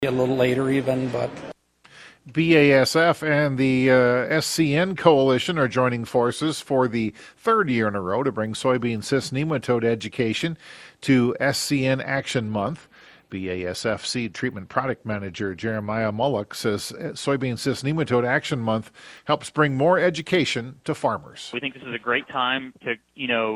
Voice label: air check